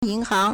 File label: "yin2 hang2", Chinese